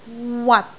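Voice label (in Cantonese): "wat6", Cantonese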